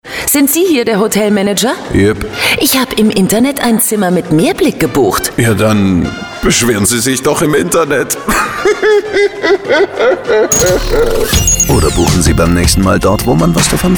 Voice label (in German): Spot